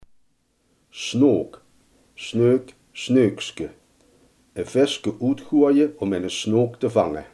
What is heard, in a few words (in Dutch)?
"snoek", Limburgs